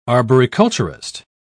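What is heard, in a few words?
"arboriculturist", British English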